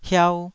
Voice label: "hiau2", Taiwanese syllable

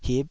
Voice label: "hip5", taiwanese syllable

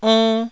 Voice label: "onn1", taiwanese

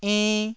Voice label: "enn1", taiwanese syllables

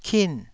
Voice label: "kin4", taiwanese syllables

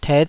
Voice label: "tet5", taiwanese Syllables

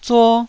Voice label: "zuo1", Taiwanese

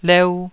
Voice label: "leu2", Taiwanese syllable

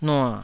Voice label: "nua3", taiwanese

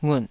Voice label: "ngut3", taiwanese syllables

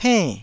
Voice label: "penn4", taiwanese

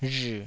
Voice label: "rhii3", taiwanese

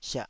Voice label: "siah3", taiwanese Syllables

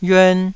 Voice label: "yuan2", taiwanese Syllables

